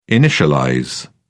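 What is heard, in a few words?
"initialize", British English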